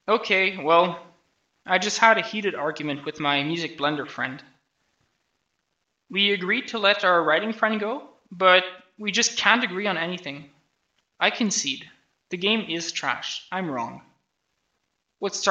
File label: voice, narration